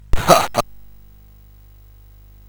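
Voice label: Bouncy Balls speech, MOS 8580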